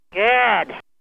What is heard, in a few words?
old lady good